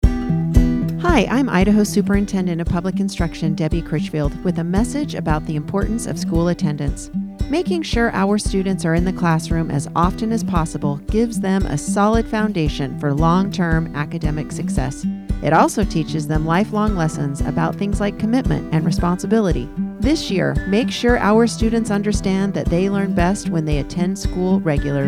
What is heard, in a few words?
Radio Spot; attendance toolkit